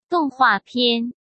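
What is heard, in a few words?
"动画片", Mandarin Chinese; hsk; chinese-flashcards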